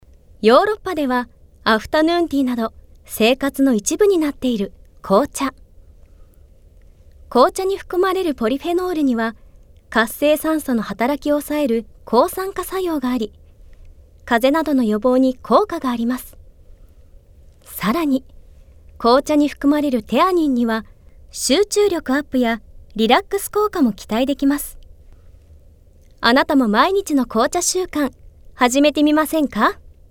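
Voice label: narration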